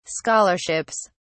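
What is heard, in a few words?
"scholarships", British English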